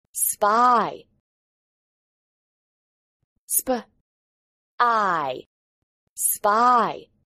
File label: "spell spy", English